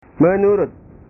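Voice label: "menurut", Indonesian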